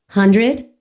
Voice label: "100", usa

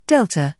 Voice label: "d", uk

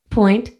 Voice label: "point", usa

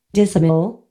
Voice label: "decimal", usa

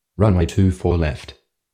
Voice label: rwy 24l, aus

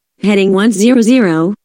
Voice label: heading 100, usa

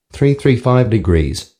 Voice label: heading 335, usa

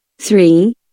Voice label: "3", usa

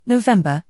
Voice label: "n", uk

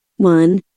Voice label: "1", usa

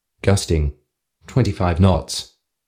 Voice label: wind gusting25, aus

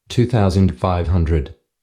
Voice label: altitude 2500, aus

